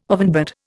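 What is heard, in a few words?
"ovenbird", Indian English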